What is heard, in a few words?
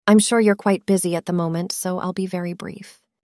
synthesized audio